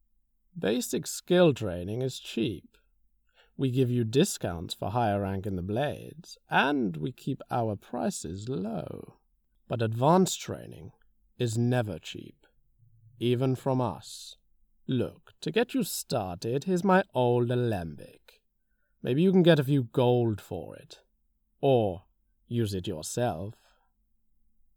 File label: altmer, high elf voice auditions; tes renewal skywind